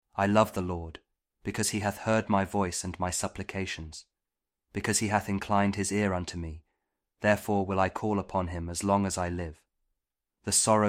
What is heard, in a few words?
psalm kjv king james audio bible; psalm 115